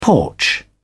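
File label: "porch", British English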